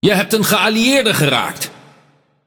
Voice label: Speech Friendly Fire Hit Alert, NL; World Of Warships